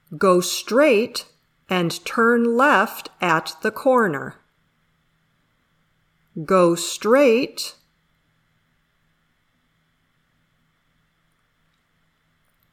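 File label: "dictation", English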